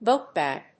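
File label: "bookbag", English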